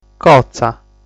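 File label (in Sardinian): "Cotza", Sardinian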